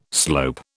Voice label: "slope", British